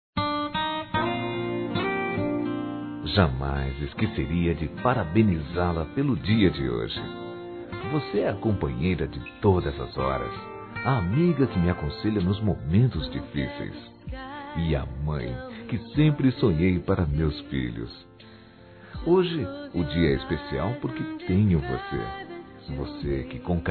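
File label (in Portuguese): ESPOSA, male, Telemensagens Dia das Mães; Masc; Telemensagens 2017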